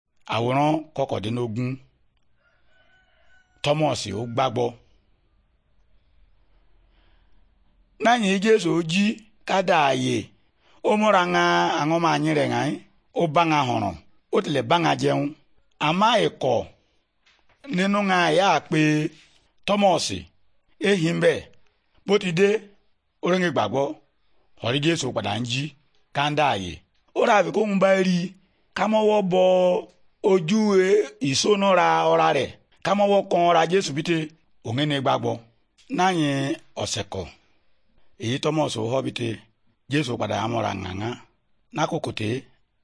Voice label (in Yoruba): good news yoruba, bible